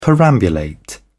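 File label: "perambulate", British English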